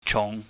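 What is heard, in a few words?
"chung", Cantonese, low rising tone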